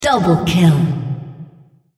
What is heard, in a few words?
female Double Kill